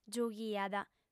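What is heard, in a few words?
"giughiat", Sardinian, female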